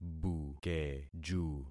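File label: "bukeju", concatenated syllables; Affixing experiment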